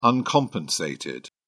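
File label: "uncompensated", British English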